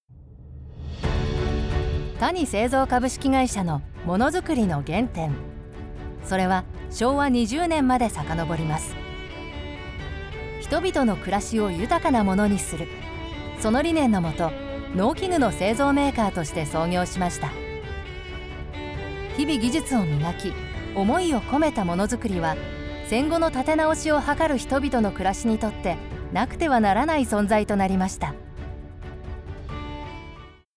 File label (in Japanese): 企業VP JP; ボイスサンプル